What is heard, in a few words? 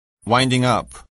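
"winding-up", English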